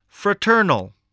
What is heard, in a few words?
"fraternal", English voice, spelling